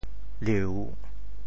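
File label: "liu3", Teochew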